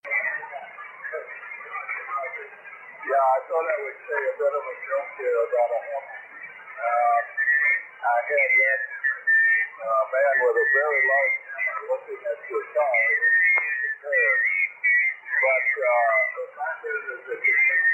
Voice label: weak SSB processed